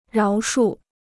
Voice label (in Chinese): "饶恕", Chinese